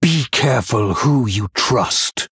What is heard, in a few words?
Male Human TRIGGER Sketchy Information